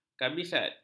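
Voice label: "kabisat", Malay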